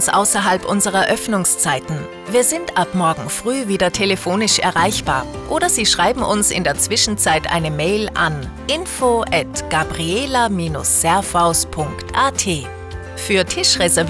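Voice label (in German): Hotel Nachtansage Notfall, Telefonanlagen; Hotel Gabriela; telefonansagen hotels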